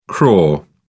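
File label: "crore", British English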